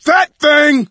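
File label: warn boomer, voice, male; Left 4 Dead 2; coach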